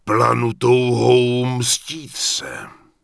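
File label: Human Male Warrior NPC Pissed, Czech; wowpreklad; czwow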